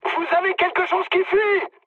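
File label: French tank damaged mood high; War Thunder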